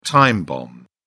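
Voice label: "time bomb", British English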